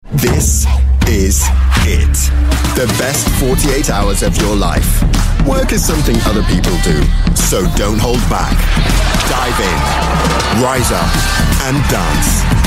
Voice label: english british voice over male commercial

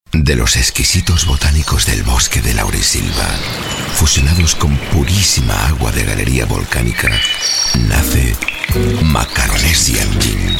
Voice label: spanish voice over male commercial

